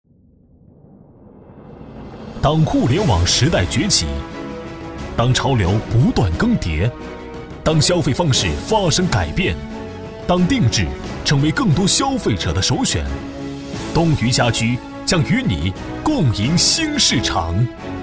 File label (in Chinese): 男, 宣传, 浑厚激昂